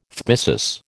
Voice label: "phthisis", American